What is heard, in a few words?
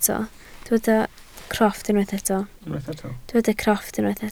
"crofft", Welsh